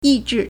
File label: "yi4 zhi4", Chinese